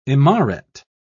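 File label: "imaret", British English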